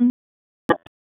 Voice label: Police Northeast District Tac 1, p25